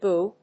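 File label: "boo", English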